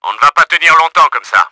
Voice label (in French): HEALTH CRITICAL, french; Battle Engine Aquila